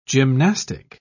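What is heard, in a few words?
"gymnastic", British English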